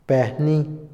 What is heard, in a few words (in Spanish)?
enviar, Otomí, hombre; Movimiento; INALI